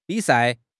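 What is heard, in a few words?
"bi3 sai4", Chinese; HSK; HSK3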